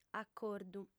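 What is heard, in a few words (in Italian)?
"acordu", sarda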